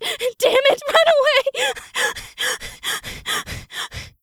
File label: Panic; zps